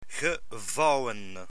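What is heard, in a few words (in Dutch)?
"gevouwen" Dutch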